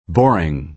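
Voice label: "boring", American English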